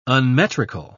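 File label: "unmetrical", British English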